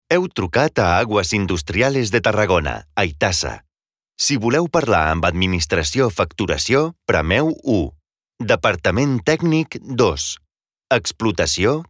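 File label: catalan interactive voice response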